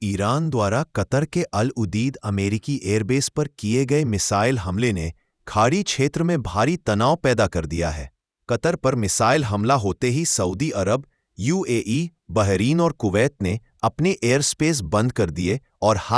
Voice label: sincere